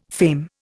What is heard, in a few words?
"fame", Indian